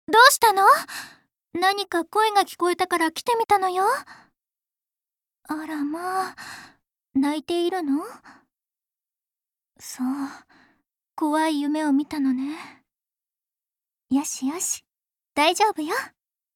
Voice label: lady; お姉さん; アニメ